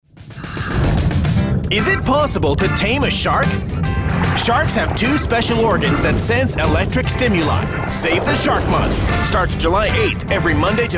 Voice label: american rusty nat geo promos, demos